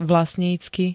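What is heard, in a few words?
"vlastnícky", Slovak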